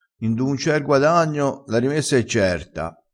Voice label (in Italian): "n du un c e", crespinese, vocabolario dialettale